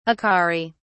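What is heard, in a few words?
"acari", English, British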